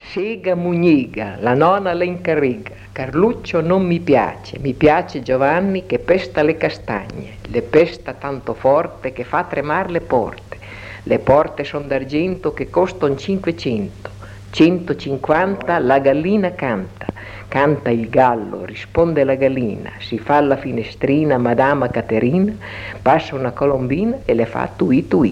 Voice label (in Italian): filastrocca; sega mugnega